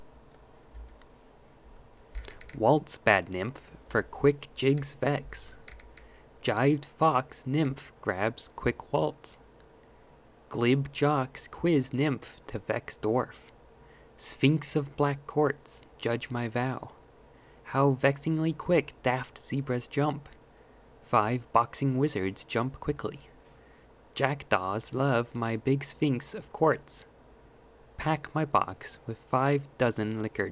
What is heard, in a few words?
Pangram Desk Recording